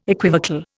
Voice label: "equivocal", Indian